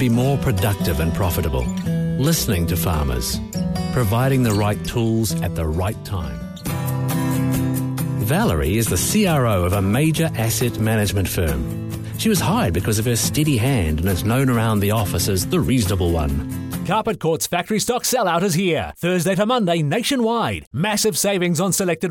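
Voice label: English New Zealand; GoLocalise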